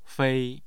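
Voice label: "fei", Chinese, first tone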